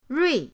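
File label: "rui", Mandarin pinyin, fourth tone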